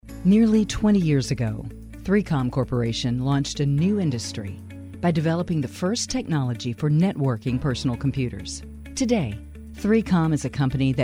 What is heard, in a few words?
Narration, Female voices